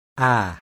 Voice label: near-open front unrounded, IPA sounds

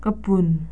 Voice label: "kebun", indonesian

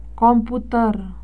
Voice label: "komputer", indonesian

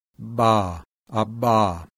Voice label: creaky-voiced voiced bilabial plosive, IPA sounds

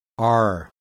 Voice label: rhotic open front unrounded; IPA

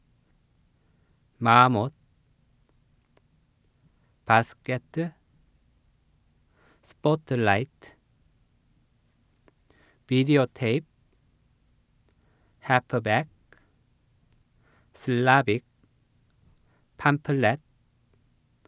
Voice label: Korean Loan Words

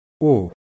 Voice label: near-close near-back rounded, IPA sounds

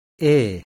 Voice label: close-mid central unrounded, IPA sounds

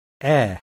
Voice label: open-mid front unrounded, IPA sounds

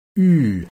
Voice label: close front rounded, IPA sounds

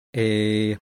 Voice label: low-tone close-mid front unrounded; IPA

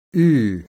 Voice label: close central rounded; IPA

